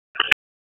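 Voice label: Raleigh Police Special Operations Tactical, p25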